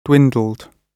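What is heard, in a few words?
"dwindled", British English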